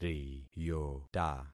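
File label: "riyota", concatenated syllables; Affixing experiment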